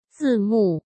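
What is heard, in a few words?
"字幕", Mandarin Chinese; chinese-flashcards; hsk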